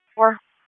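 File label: Sheriff's Office Dispatch 1, p25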